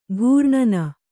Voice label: "ಘೂರ್ಣನ", Kannada